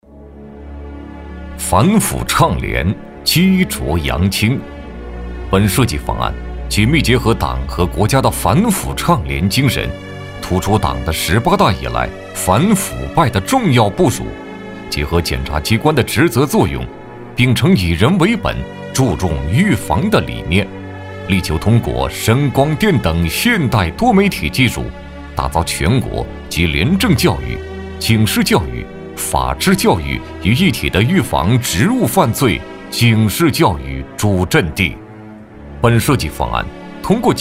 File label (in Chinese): 男100号-专题-预防职务犯罪警示基地 严肃 深沉, 国语男声配音